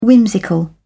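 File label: "whimsical", British English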